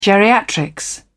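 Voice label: "geriatrics", British English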